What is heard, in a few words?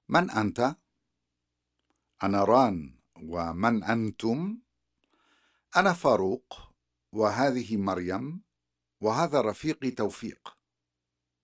Conversation; SALAMAAT